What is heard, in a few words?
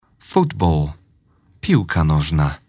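"football", English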